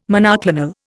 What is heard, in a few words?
"monoclonal", Indian